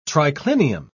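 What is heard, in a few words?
"triclinium", British English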